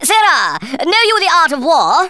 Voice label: oi nutter, Speech Thespian; Worms Armageddon